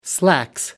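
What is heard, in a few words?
"slacks", British English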